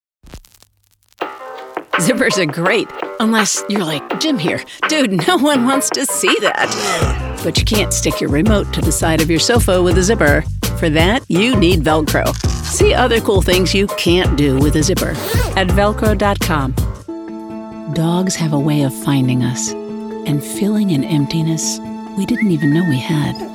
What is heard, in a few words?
Commercial